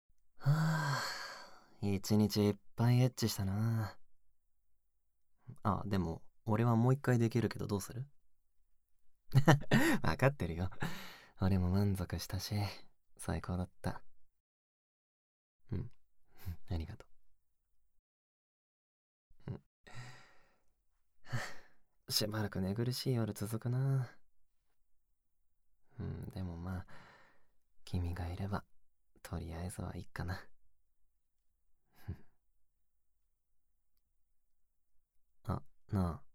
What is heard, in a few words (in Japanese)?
おやすみ 声のみ